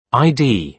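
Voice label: "id", British English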